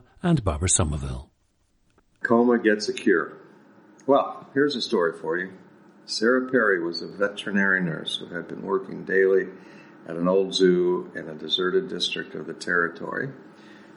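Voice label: florida, International Dialects of English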